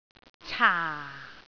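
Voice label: "caa4", Cantonese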